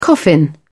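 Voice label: "coffin", British English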